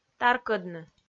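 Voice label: "таркӧдны", Komi, female; audio dict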